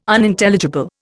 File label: "Unintelligible", Indian